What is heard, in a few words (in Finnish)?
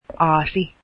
finnish donkey, Finnish